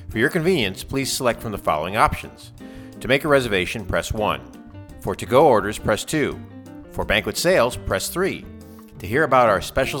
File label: Main Menu Auto Attendant with music